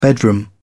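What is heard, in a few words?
"bedroom", English, British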